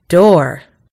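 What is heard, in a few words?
"door", American English; gcompris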